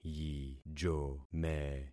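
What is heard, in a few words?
"yijome", concatenated syllables; Affixing experiment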